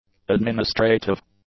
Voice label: "administrative", English; adjectives

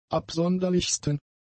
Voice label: "absonderlichsten", German; adjectives

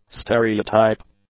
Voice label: "stereotype", English; adjectives

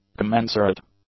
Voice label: "commensurate", English; adjectives

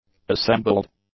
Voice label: "assembled", English; adjectives

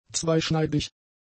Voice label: "zweischneidig", German; adjectives

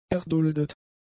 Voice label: "erduldet", German; adjectives

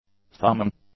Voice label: "thumbing", English; nouns

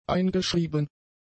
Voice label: "eingeschrieben", German; adjectives

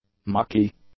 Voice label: "mucky", English; adjectives

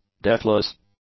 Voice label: "deathless", english; adjectives

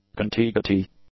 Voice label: "contiguity", English; nouns

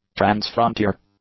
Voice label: "transfrontier", English; adjectives

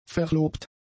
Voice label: "verlobt", German; adjectives

